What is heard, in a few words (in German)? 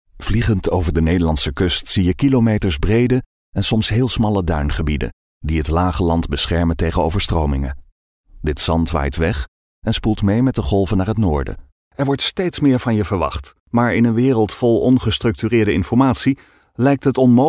NL Kommentar Neutral